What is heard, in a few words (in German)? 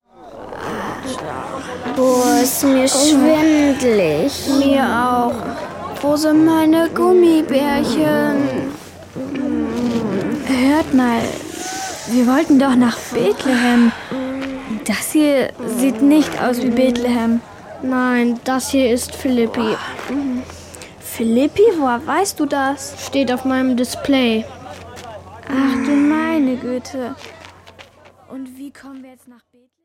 Hoerszene; Die Gute Nachricht